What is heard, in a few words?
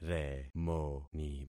"remoni", concat syllables; Affixing experiment